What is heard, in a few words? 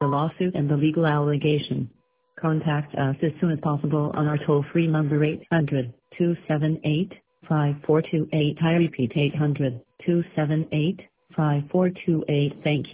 voicemail